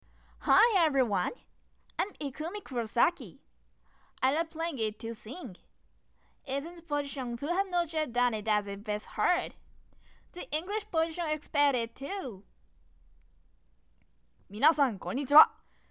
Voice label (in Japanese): 英語「Hi everyone みなさんこんにちは」